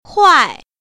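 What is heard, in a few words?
"huai4", Mandarin Chinese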